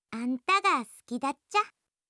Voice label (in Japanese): 好きです 富山; 律子